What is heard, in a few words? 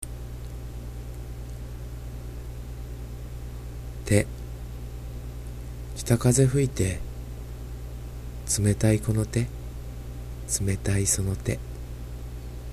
poem read